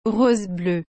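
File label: "rose bleue", French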